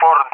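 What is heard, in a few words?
"Ford", English speech; CallSign; DCS; Allied Flight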